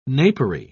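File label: "napery", British English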